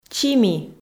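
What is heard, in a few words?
"чими", Komi, female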